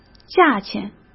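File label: "价钱", Mandarin Chinese; chinese flashcards; hsk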